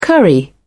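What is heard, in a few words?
"curry", British English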